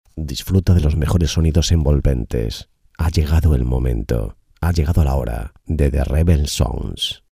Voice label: locutor indicativo radio; locución de indicativos para Uruguayos radio

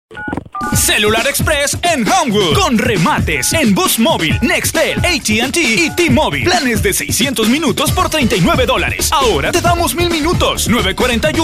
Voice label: locutor mexicano; locutor mejicano; Locutores de México

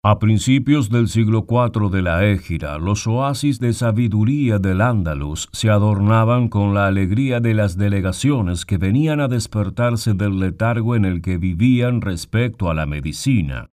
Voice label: locutor espanol neutro american spanish voiceover; locutor latino

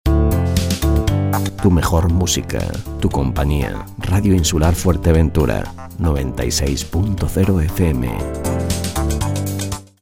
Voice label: jingle voz; indicativos radio

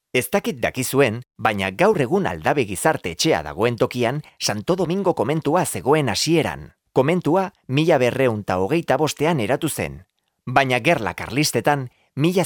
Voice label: locutor euskera basque voiceover audioguide, locución en euskera para audioguía turística

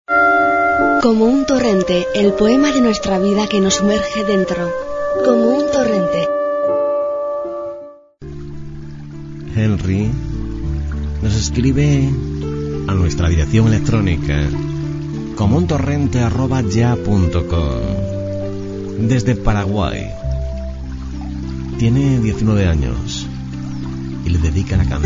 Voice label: radio programa; Programa "Como un Torrente" : Tu noche

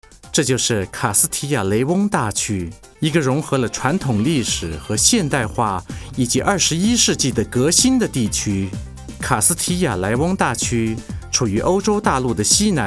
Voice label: locutor chino

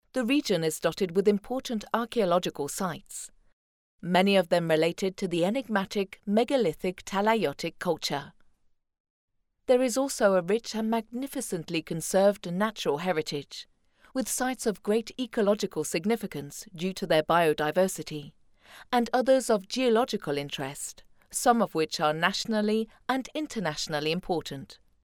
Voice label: locutora inglesa english voiceover, Grabación cultural para exposición; Grabación cultural para exposición en una cueva de Menorca

